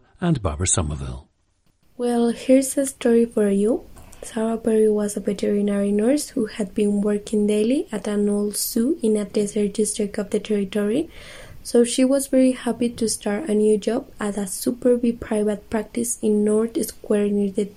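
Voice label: colombia, International Dialects of English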